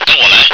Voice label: follow me, radio